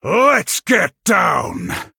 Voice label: Male NightElf Attack